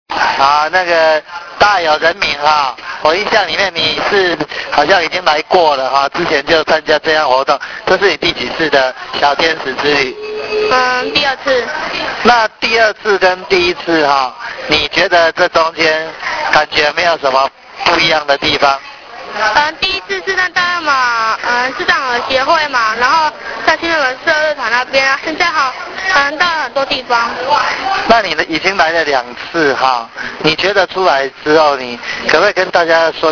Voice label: interview; 感動電池小天使對於本次活動的心得感想; 感動電池小天使關懷之旅